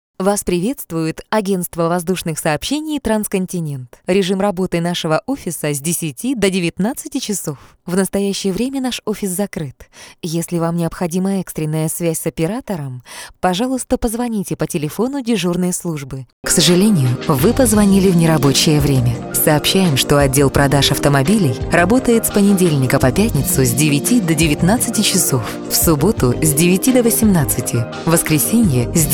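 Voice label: IVR Russian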